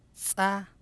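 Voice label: "tsa", syllable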